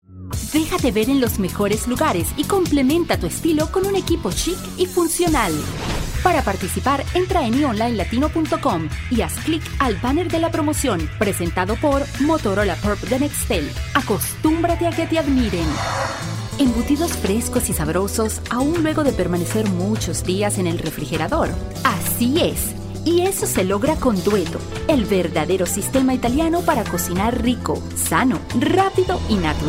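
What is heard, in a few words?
Spanish, commercial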